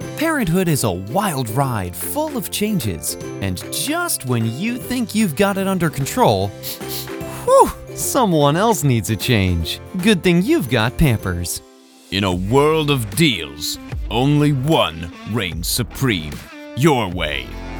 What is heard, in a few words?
Commercial Reel